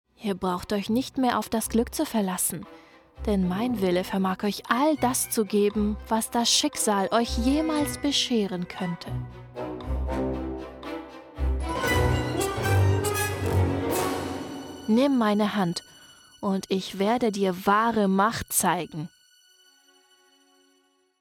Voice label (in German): Dunkle Kreatur mit Atmo